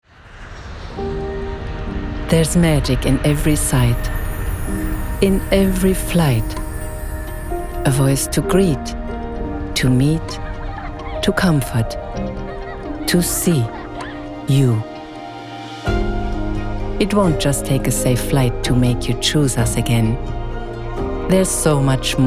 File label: AD; AMERICAN AIRLINES